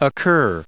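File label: "occur", US English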